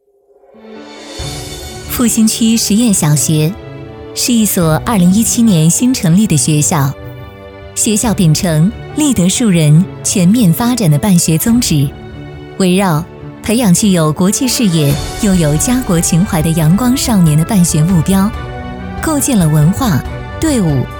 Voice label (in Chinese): 女 专题 汇报; 复兴区实验小学